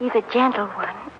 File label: hes a gentle one, female spoken word; MISC and SPOKEN WORD